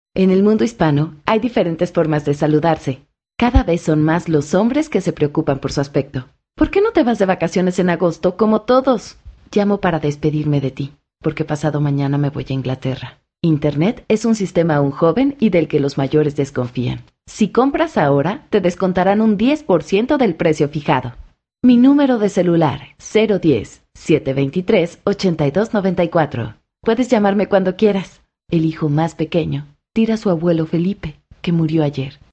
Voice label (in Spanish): Spanish South America, F